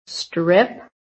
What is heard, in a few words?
"strip", american; PHONETIC